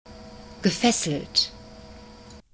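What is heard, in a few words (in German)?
"gefesselt", German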